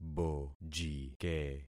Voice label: "bojike", concat syllables; Affixing experiment